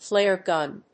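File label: "flare gun", English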